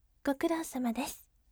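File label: "gokurousamadesu", gentle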